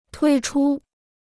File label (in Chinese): "推出", Chinese